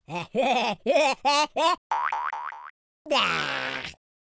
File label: taunt, speech, Madchester